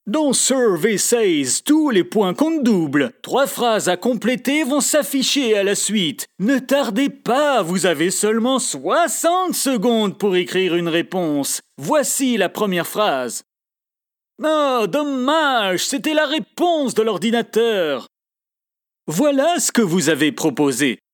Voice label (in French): FR voix seche Publicite ton vintage loufoque Comedi